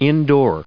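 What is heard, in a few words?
"indoor", English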